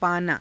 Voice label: "paanaa", Hindi; पाना; [他動]得る，見つける; 【語幹～】･･･できる

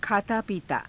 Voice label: khaataa piitaa, Hindi

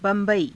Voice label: "ba^baii", hindi

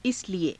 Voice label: "isalie", Hindi

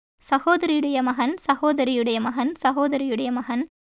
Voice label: Tamil

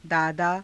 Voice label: "daadaa", Hindi; [男]父方の祖父; दादा

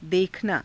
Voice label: "dekhanaa", Hindi

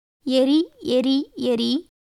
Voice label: Tamil

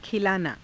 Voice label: "khilaanaa", Hindi

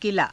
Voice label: "kilaa", Hindi

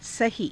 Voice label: "sahii", Hindi

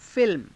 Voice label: "filma", Hindi

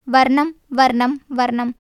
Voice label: Tamil

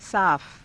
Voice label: "saafa", Hindi; [形]清潔な，はっきりした; साफ़

